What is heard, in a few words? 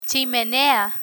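"chimenea", Spanish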